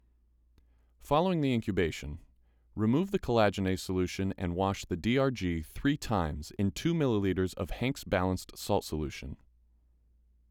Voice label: voiceover